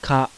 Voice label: "KA", kana

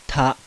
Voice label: "TA", kana

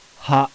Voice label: "HA", kana

